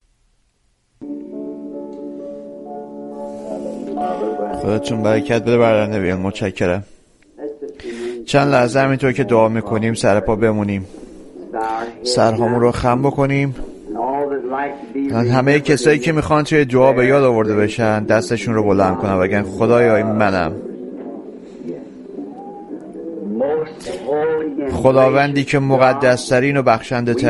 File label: Farsi Voice Over; He that is in you